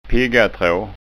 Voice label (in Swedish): "piggatra", skanska ord